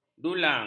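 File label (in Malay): "dulang", Malay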